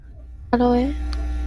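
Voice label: "aloe", Japanese, female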